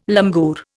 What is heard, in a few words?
"langur", Indian